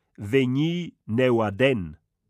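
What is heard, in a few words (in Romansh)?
vegnir neuaden, Rätoromanische Hörprobe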